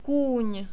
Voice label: kun, czech

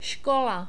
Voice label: skola, czech

